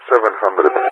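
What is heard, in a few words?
"70", English speech; Allied Flight; Numbers; DCS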